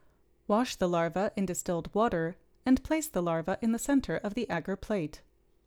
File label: Gong Voiceover